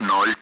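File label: Speech Russian; Digits; Allied Flight; DCS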